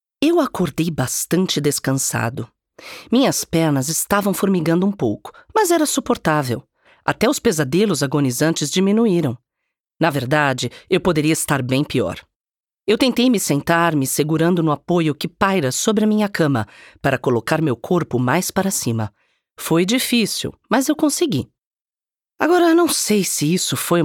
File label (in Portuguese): mulher, portugiesisch